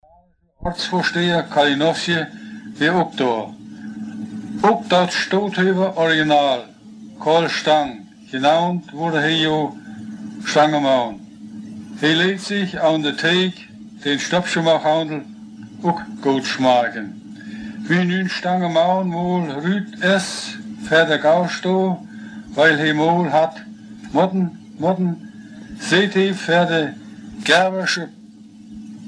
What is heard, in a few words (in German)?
Stutthoefer Platt; Stutthof